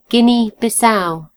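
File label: "guinea bissau", British English; gcompris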